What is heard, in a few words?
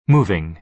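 "moving", American English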